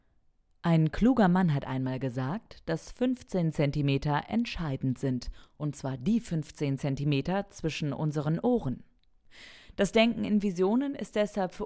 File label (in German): Werbung; 15cm Denken